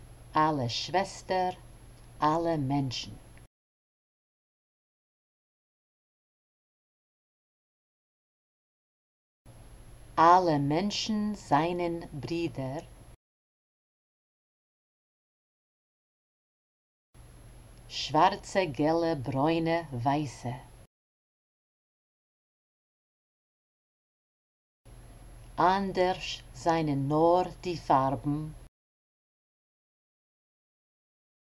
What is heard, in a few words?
pronunciation drill; Listen and repeat; Ale Mentshn